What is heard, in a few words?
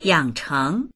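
"yang3cheng2", Mandarin Chinese; 养成; 養成（する）、（習慣を）身につける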